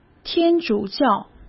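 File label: "天主教", Mandarin Chinese; hsk; chinese-flashcards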